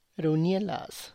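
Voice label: Tlacochahuaya Zapotec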